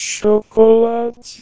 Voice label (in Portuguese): chocolate 200Hz 250Hz